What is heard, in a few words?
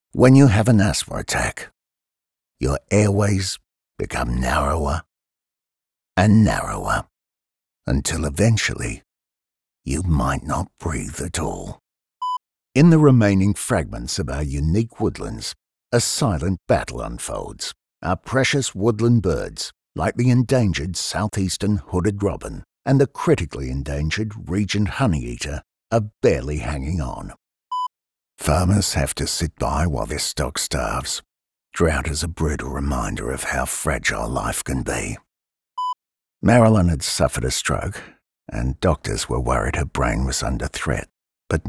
EMOTIVE